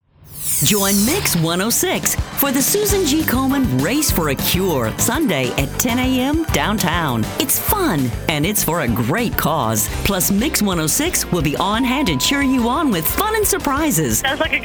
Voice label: radio imaging ac

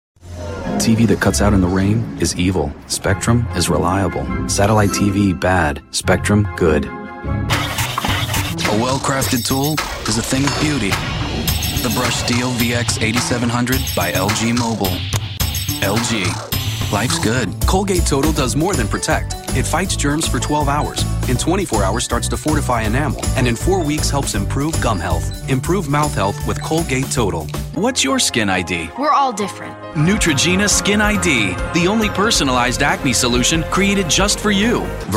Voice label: commercial male

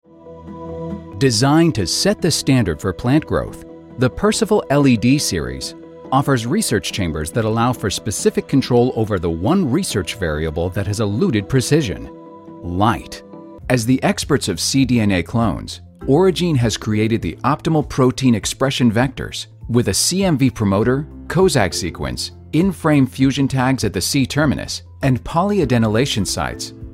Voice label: narration men medical

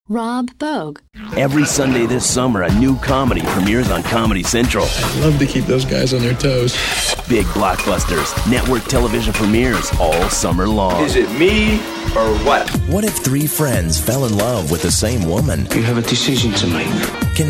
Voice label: Promo

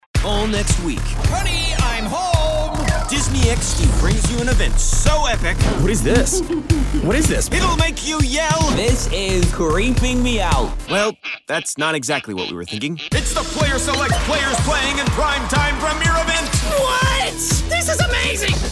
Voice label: promo men

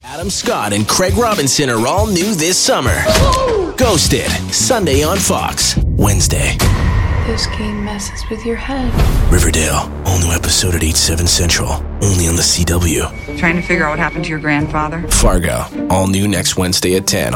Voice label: promo men

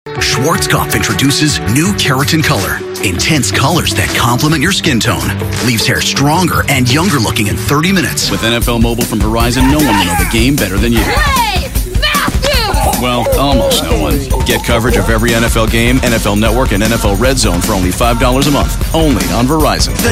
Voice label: commercial, men

